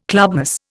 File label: "clubmoss", Indian